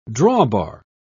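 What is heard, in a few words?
"drawbar", British English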